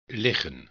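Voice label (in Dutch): "liggen", Dutch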